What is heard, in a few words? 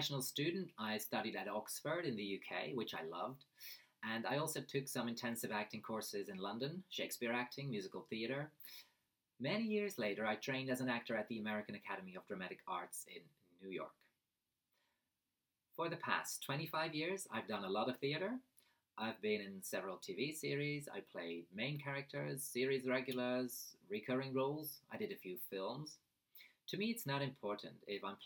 English US